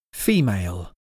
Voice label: female